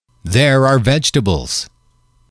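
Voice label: "there are vegetables", English, male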